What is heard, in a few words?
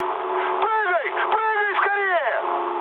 bailout, mood high, speech ru; War Thunder